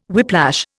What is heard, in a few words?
"whiplash", Indian